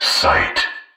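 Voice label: "sight", synthesized voice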